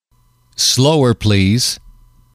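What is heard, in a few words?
"slower please", English, male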